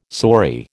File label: "saury", American